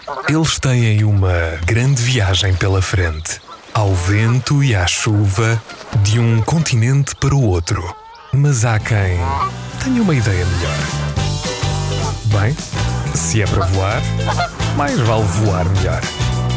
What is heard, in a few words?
COMMERCIAL